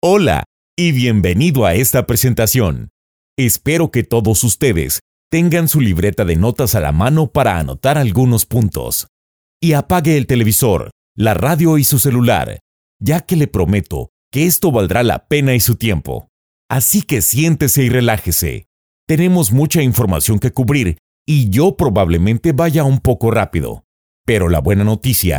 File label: explainer video